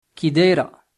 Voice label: how are you, arabic; لاباس عليك؟; Arabic (Moroccan); >f (kidayra?)